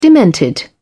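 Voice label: "demented", British English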